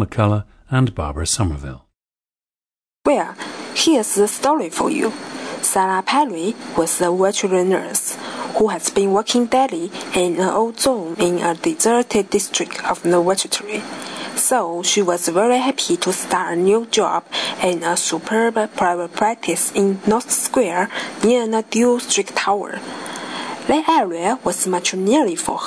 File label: fujian, dialects